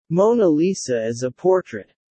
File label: low; VR classroom Test